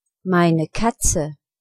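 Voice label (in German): "katze", German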